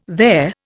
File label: "there", Indian